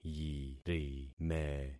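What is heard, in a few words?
"yirime", concatenated syllables; Affixing experiment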